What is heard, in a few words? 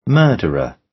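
"murderer", British English; Crime and punishment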